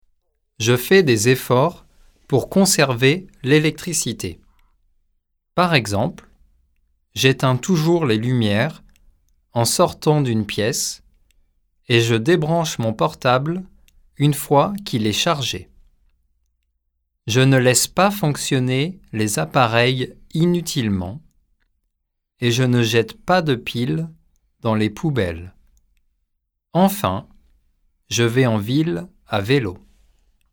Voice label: french environment; global sustainability